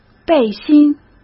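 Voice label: "背心", Mandarin Chinese; hsk; chinese-flashcards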